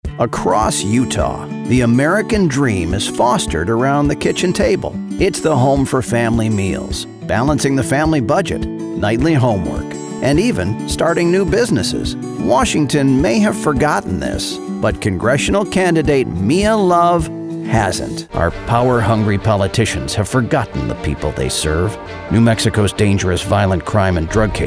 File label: Political Commercial